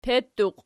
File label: "petuqi", Archi